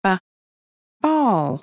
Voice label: babys sound audio BALL